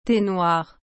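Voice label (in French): "thé noir", French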